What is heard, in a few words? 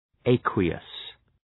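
"aqueous", English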